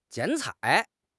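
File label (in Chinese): "剪彩", Chinese; HSK6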